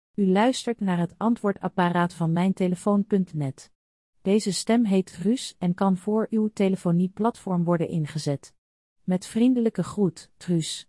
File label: Voice Prompts, NL; Voiceprompt voorbeeld